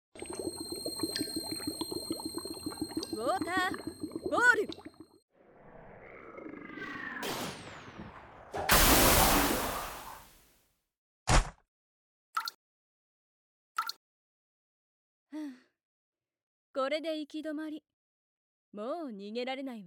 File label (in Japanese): 本編（SEあり）, ASMR; 催眠魔法でジョブチェンジ! ～催眠で屈辱アクメ、人格を強制排泄させられた大魔法使いが【わんわんメスイヌオナホペット】にジョブチェンジ～